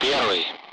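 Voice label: Speech, Russian; Allied Flight; DCS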